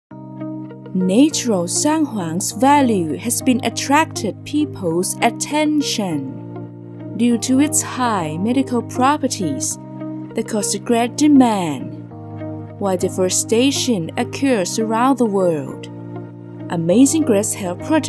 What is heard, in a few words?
english corporate videos